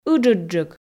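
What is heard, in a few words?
"ыджыдджык", Komi, female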